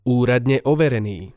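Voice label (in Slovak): "uradne overeny", Slovak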